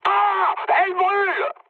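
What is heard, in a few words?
French on fire mood high; War Thunder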